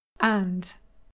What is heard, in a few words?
"and", English pronunciation, trap vowel